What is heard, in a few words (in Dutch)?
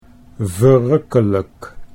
"verrukkelijk", Dutch